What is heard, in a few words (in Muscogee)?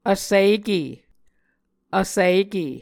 "vsike", Muscogee